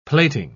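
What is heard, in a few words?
"plating", British English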